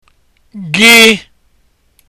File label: g letter, igbo; Gaa; Walkk